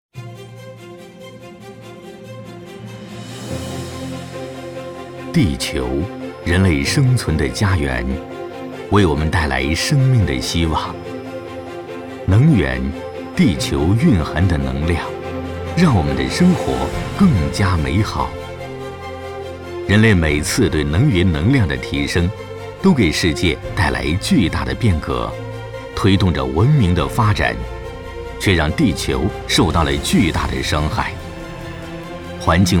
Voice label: 【宣传片】, 国语; 哈纳斯

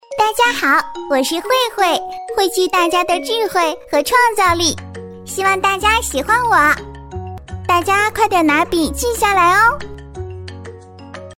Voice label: 女国语, 女童 活泼可爱形象

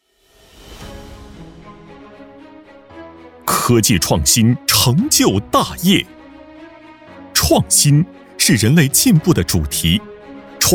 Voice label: 男 国语 配音, 浑厚 大气 时尚, 宣传; 星湖杯大赛

